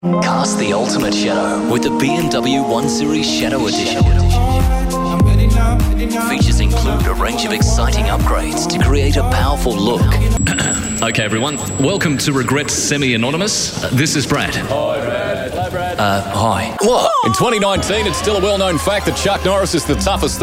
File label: english australian commercial, compelling